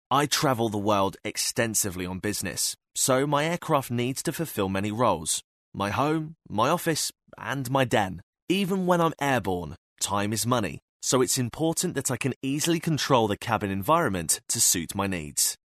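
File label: British English, male, voice over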